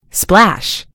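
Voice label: "splash", American English; gcompris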